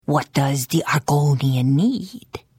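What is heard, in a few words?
Hello, Khajiit female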